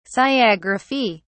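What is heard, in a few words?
"sciagraphy", British English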